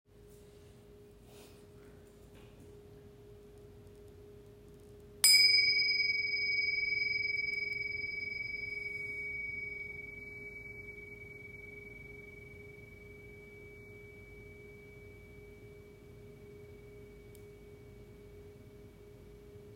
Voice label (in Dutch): Meditatie met moeilijkheid; mbsr training september 2024